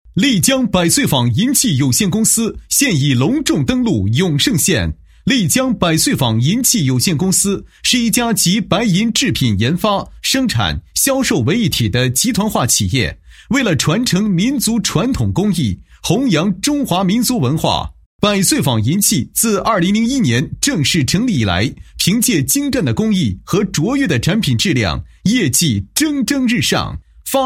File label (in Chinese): 男 好消息 开业促销; 丽江百岁坊银器有限公司开业促销